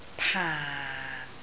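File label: "paang4" Cantonese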